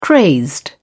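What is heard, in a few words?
"crazed", English, British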